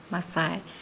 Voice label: Nukuoro; tear fabric